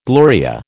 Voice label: "Gloria", American